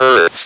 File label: "earth", Speak & Spell